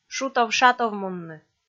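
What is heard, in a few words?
"шутов-шатовмунны", Komi, female; kpv audio dict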